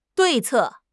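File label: "对策", Mandarin Chinese; HSK6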